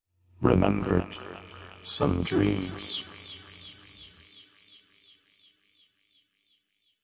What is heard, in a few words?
processed male vox poetry bits